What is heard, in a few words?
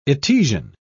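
"etesian", British English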